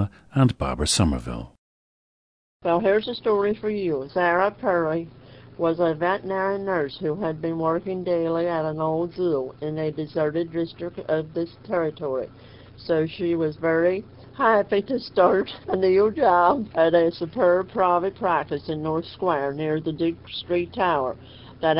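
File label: virginia, International Dialects of English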